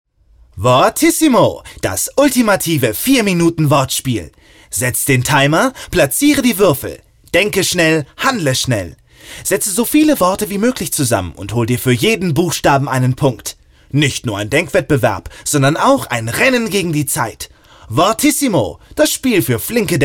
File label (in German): Jugendspielwerbung; NORIS